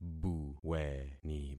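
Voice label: "buweni", concatenated syllables; Affixing experiment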